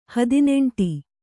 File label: "ಹದಿನೆಂಟು", Kannada